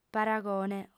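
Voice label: "paragone", Sardinian, female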